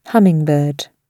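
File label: "hummingbird", British English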